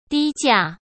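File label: "低价", Mandarin Chinese; chinese-flashcards; hsk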